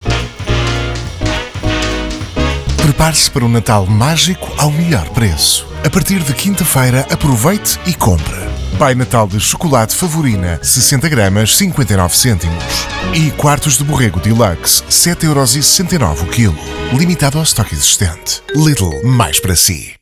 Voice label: Portugese, Christmas Commercials; Portugal; Christmas Commercials 2023; Lidl